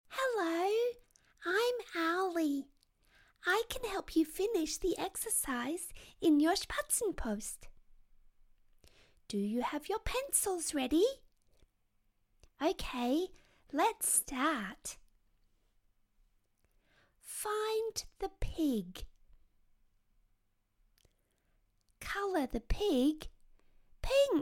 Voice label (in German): englisch hoeruebung; spatzenpost